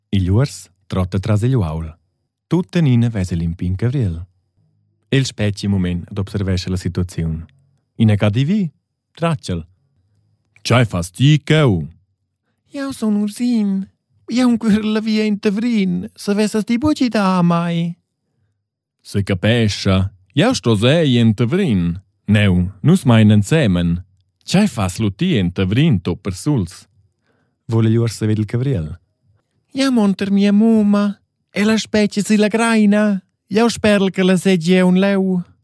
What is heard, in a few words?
male romansh children's narration; romansh and swiss german